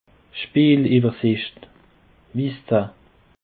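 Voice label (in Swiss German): "speelewersicht", Alsatian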